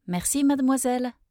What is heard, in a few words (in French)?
"merci mademoiselle", French; thank you